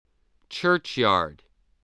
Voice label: "churchyard", English, voice